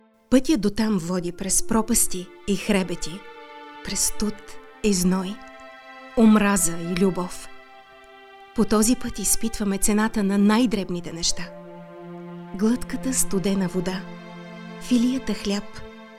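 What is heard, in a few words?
Bulgarian, female, presentational video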